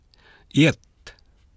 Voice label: "iett", Elfdalian